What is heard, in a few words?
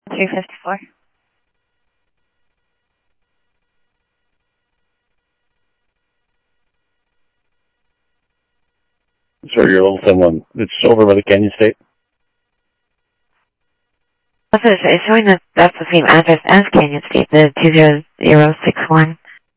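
Mesa Police Department, scanner audio; MESA PD 1 Fiesta MesaPoliceDep; Mesa Simulcast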